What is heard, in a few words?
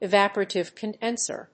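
"evaporative condenser", English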